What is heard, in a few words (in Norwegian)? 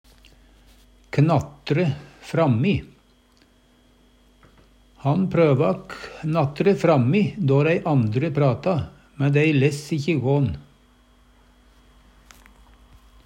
"knatre frammi", Numedalsmål; Han prøva knatre frammi då' rei andre prata, men dei less ikkje gå'n.